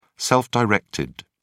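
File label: "self-directed", British English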